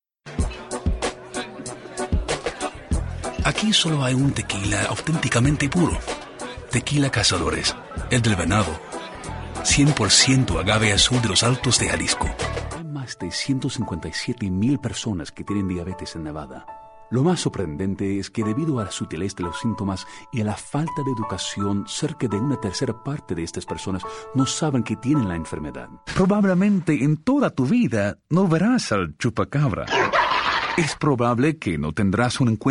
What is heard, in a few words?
Spanish English Narration; Latino Artist